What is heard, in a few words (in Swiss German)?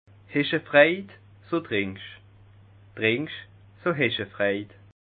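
"freid", Bas Rhin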